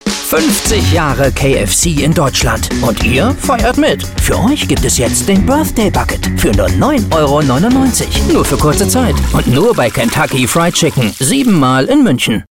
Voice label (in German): Werbespot; KFC